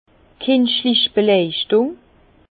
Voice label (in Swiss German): "kinschlich", Bas Rhin; vin; éclairage artificiel